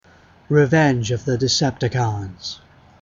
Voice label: Narrator Line; Masterforce